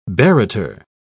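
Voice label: "barrator", British English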